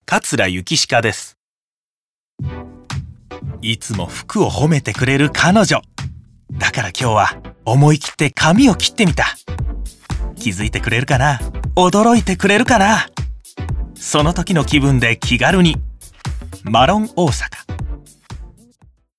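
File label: commercial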